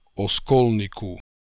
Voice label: "Oskolnikoo", pronounciations; Fourth Way; Beelzebub